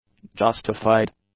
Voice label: "justified", english; adjectives

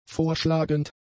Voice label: "vorschlagend", German; adjectives

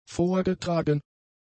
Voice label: "vorgetragen", German; adjectives

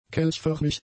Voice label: "kelchförmig", German; adjectives

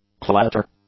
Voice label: "clatter", English

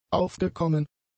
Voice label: "aufgekommen", German; adjectives

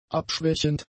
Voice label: "abschwächend", German; adjectives

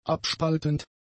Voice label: "abspaltend", German; adjectives

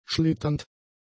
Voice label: "schlitternd", German; adjectives

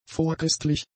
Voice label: "vorchristlich", German; adjectives

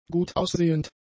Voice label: "gutaussehend", German; adjectives

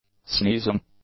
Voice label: "sneezing", English; nouns

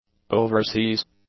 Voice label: "overseas", English; adjectives

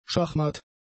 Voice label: "schachmatt", german; adjectives

